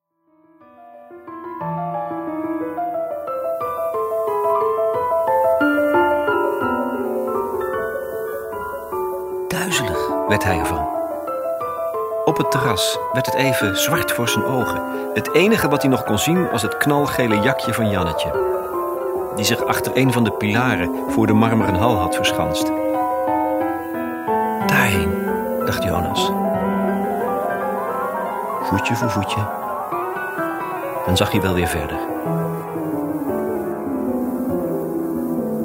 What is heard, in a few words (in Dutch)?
NL Kind Promo; Liefdeskuren